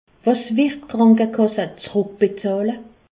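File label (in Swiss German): "krankekass", Alsace, Haut Rhin; medecine; que rembourse la sécurité sociale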